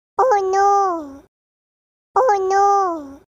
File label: Oo No Cute SMS